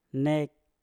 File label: "nek", Mpur; tanah